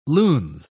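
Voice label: "lunes", British English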